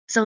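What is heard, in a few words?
"slh1", Proto-Indo-European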